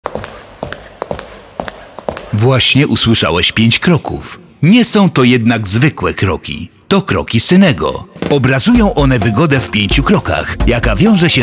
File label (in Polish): spot radiowy